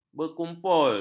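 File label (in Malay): "berkumpul", Malay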